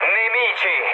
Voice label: enemy detected mood low, speech it; War Thunder